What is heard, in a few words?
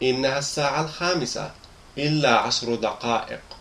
ten to in arabic